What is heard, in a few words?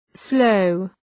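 "sloe", English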